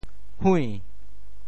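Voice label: "hui~2", Teochew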